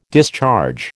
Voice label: "discharge", American